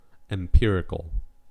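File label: "empirical", US English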